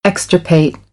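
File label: "extirpate", American English; AmericanBritish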